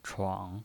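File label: "chuang", Mandarin Chinese pinyin voice, third tone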